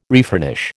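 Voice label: "refurnish", American English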